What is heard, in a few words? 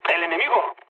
enemy detected mood low; War Thunder